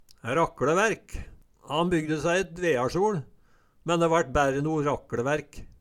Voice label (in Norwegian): "rakLeværk", Numedalsmål